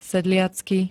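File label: "sedliacky", Slovak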